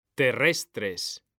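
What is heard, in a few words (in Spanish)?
"terrestres", Spanish; mekanta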